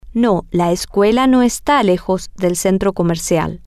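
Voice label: No the school isn't far from the mall, Spanish, female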